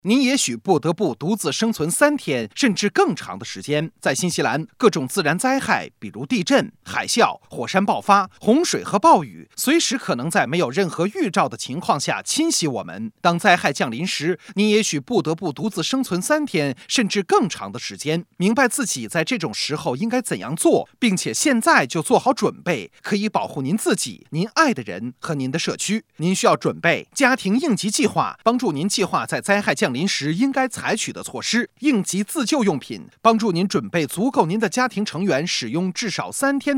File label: Radio Mandarin